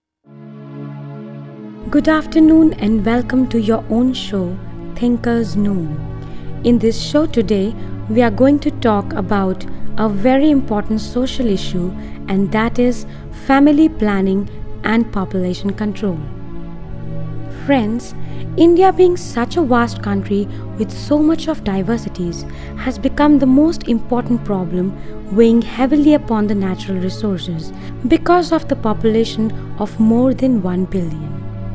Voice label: Indian Accent